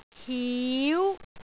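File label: "hiu2", Cantonese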